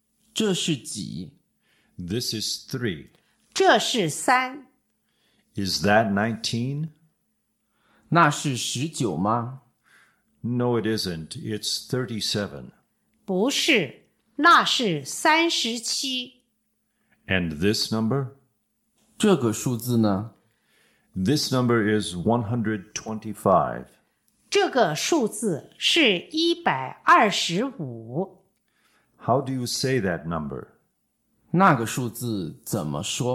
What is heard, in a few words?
Dialog